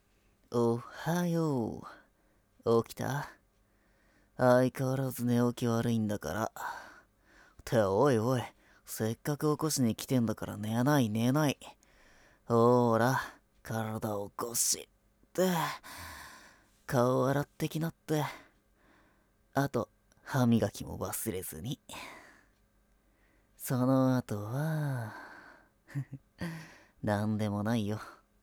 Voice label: イケボ R; 右耳Ver

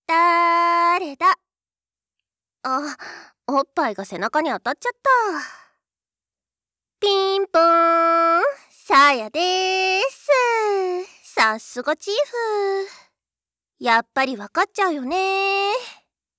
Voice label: だ～れだ?, ASMR

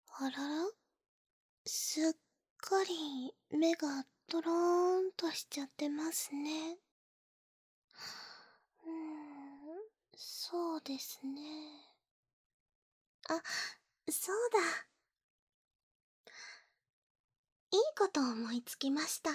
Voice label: 添い寝 雨音無し

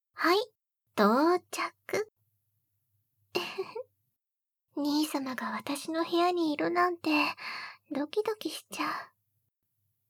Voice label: 催眠誘導; 【催眠音声】兄さまと私のらぶらぶ耳舐め逆乳首責めえっち; ひぷのべあー

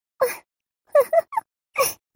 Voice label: mob 女子 台詞

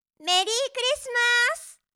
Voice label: メリークリスマ～ス, 恋人に甘える口調

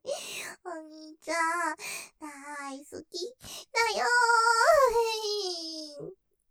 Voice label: 満面の笑顔で; 余韻; お兄ちゃん大好きだよぉ; ループで楽しむ！シェフの気まぐれ抜き抜きコース

